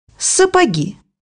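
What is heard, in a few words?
"boots", Russian; bukvar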